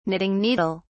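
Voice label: "knitting needle", English, British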